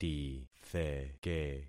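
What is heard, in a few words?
"titheke", concatenated syllables; Affixing experiment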